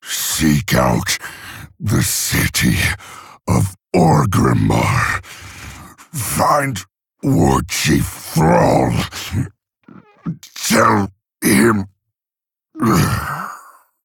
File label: Male Orc; Mogrin